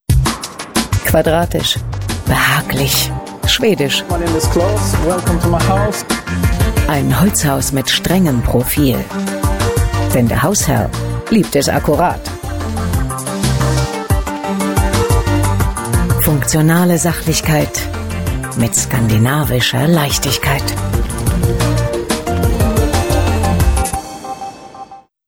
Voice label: trailer